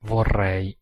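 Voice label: "vorrei", Italian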